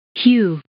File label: "hew", English